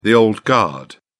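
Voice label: "old guard", British English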